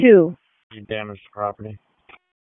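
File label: Police District Dispatch; Raleigh; Northeast; wake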